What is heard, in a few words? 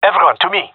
request regroup, male; vo